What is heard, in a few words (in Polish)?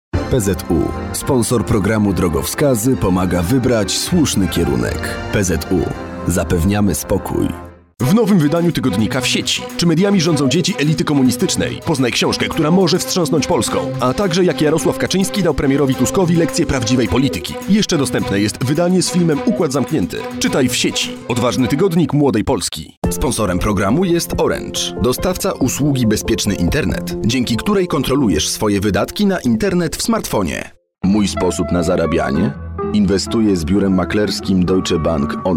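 COMMERCIAL, male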